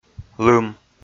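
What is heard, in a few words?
"лым", Komi, male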